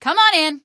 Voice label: password, female; Source Engine; video game; streetwar; tunnel

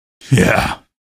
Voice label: kill, male; video games; npc; source engine; episodic; episode 1

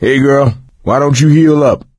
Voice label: suggest health, coach, survivor voice; video game; source engine; left 4 dead 2

